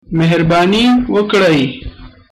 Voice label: please, pashto